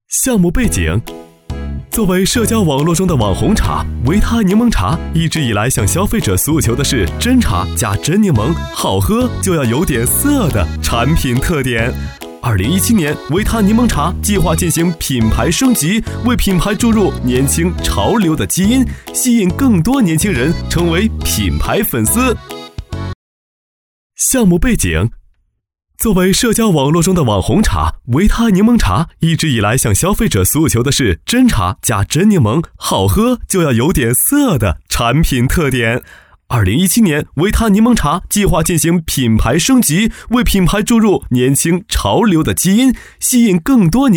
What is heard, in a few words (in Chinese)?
男 年轻调侃; 维他柠檬茶